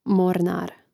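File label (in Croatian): "mornar" Croatian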